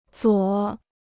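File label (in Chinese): "左", Chinese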